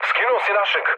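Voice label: Serbian player killed ally mood low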